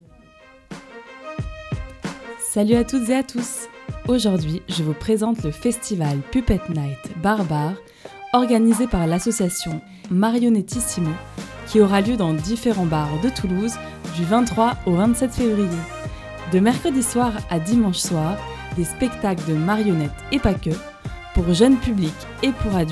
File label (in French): Bande annonce; graine de voix